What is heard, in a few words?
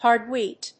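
"hard wheat", English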